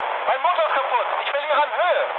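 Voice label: German damaged engine mood med, speech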